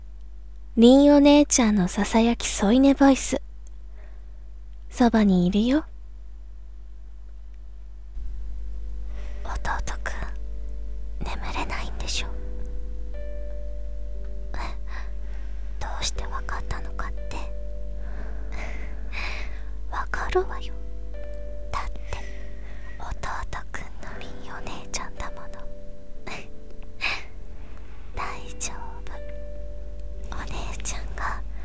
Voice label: BGM 効果音入り お姉ちゃんのささやき添い寝ボイス。そばにいるよ; 凛お姉ちゃん

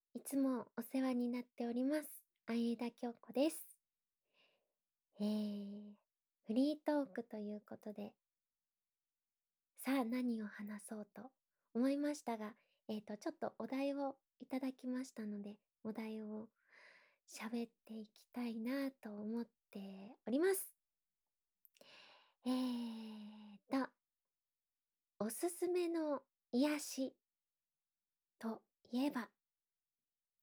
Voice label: フリートーク, ASMR; 全年齢おまけ

